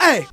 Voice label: "ay", voice